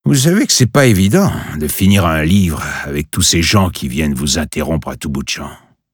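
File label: French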